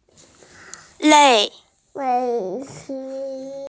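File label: "lei4", Mandarin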